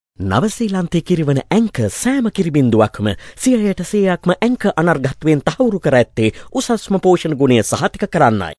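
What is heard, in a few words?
Sinhala male voice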